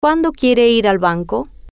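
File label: Cuando Quiere Ir Banco, Spanish, female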